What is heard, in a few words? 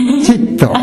"chetto", minamiyamagata